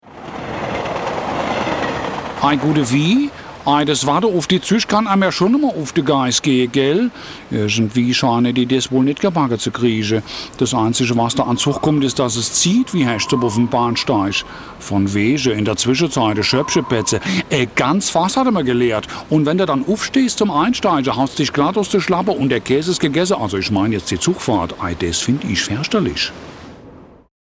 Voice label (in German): Deutsche Dialekte Hesse